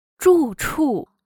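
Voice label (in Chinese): "住处" Mandarin Chinese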